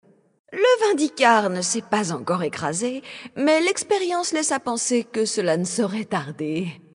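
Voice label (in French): Female Blague, voix françaises; Draenei Sancteforge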